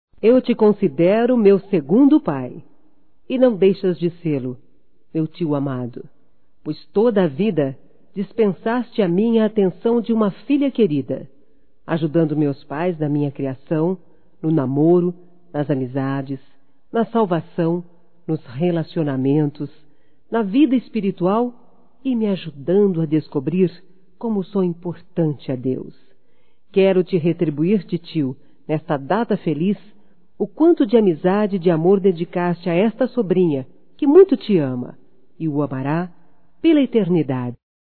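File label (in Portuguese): EVANG ANIVERSÁRIO DE TIO, Feminino; Aniversarios; Telemensagens; Telemensagens 2017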